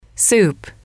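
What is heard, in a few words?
"soup", English, female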